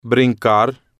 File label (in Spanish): "brincar", Spanish